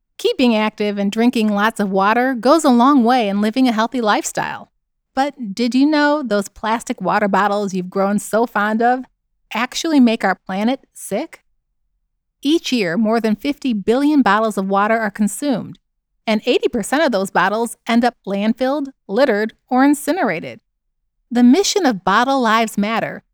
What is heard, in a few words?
Product Educational Informative Casual; Commercial Reel